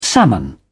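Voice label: "salmon", British English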